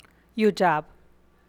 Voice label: to be sick, Teotitlán del Valle Zapotec; yu dxaab